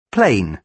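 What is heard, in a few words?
"plane", British English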